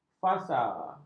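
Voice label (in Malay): "fasa", Malay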